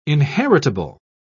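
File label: "inheritable", British English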